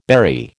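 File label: "berry", American English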